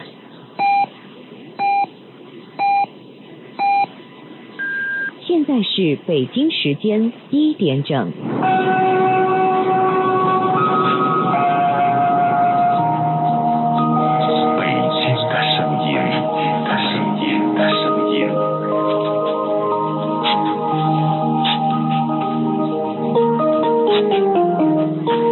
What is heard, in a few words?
news radio; baijing